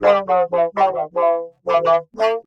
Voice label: murmur